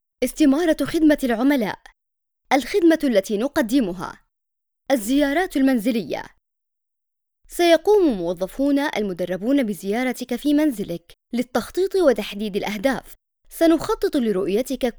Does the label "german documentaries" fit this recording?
No